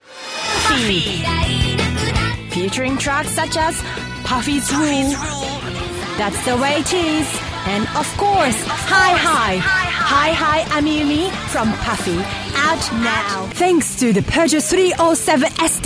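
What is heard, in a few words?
Japanese English; Male, 30s-40s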